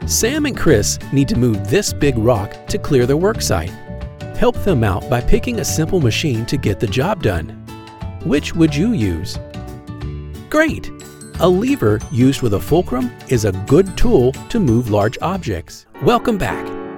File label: elearning